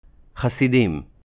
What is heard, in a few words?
"Hasidim", Hebrew